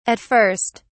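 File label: "at first", British English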